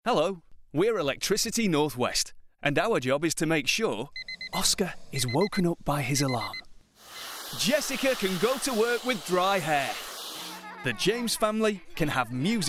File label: customer awareness campaign radio advert